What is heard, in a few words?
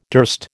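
"durst", American English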